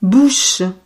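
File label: "bouche", French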